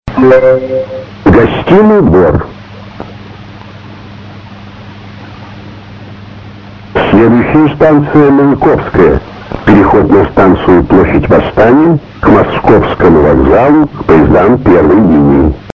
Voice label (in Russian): Объявление с третьей линии; Объявления в метро; Гостиный двор-Маяковская